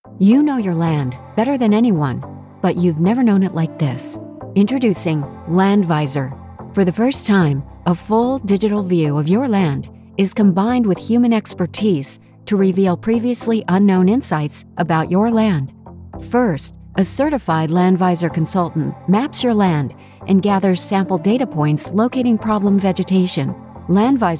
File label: Technology Video Confident Professional Serious Sincere; Trustworth